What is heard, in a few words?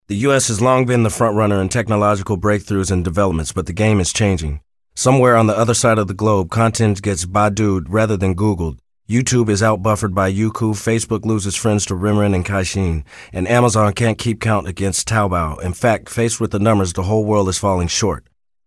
voices ENGLISH male adult USA; KER-SOUND